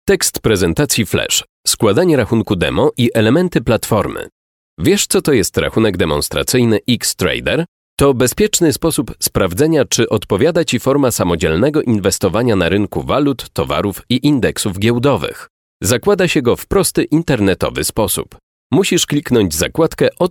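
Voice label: Polish elearning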